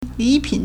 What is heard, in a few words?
"li3pin3", Chinese